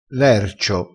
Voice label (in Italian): "lercio", crespinese